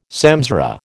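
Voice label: "samsara", American English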